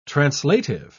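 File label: "translative", British English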